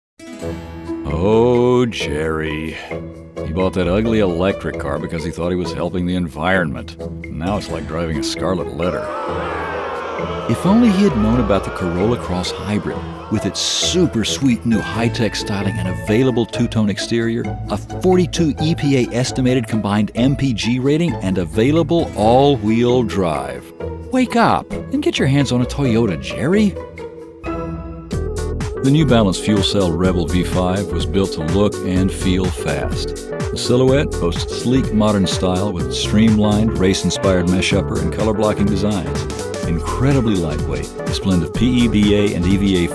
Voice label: EXPLAINER MIX